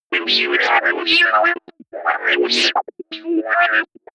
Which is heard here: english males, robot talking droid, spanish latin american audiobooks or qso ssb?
robot talking droid